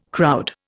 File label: "crowd", Indian